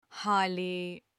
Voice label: carpet in turkish